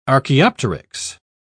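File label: "archaeopteryx", British English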